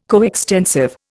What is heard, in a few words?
"coextensive", Indian